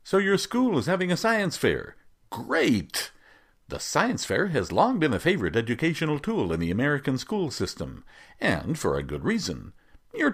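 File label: English Canadian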